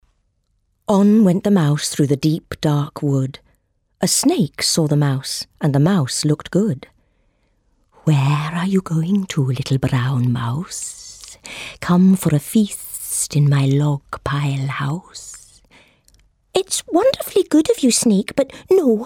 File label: Audiobook